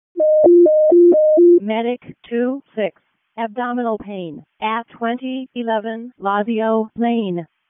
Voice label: EMS ALERT, p25; wake